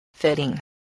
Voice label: "fitting", spelling